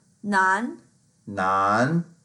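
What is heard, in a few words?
"nan2", Mandarin Chinese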